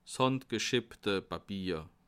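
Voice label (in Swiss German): "handgespfte", Bas Rhin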